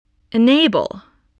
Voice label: "enable", spelling